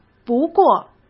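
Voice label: "不过", Mandarin Chinese; chinese flashcards; hsk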